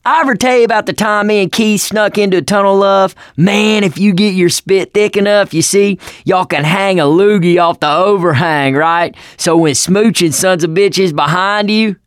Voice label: stories, survivor voice